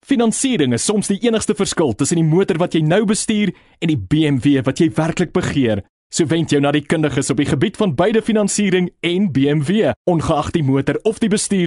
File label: Afrikaans